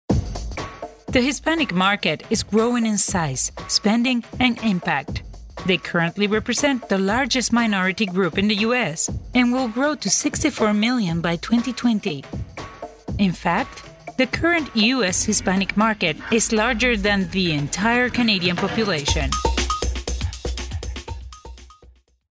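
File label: English Commercial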